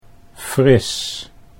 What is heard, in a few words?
"fris", Dutch